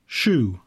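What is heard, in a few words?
"shoe", English; poitiers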